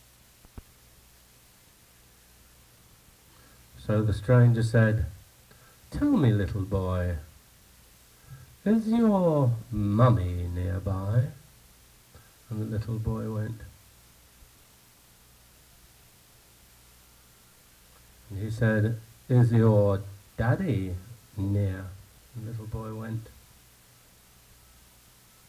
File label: saxophonist reading stories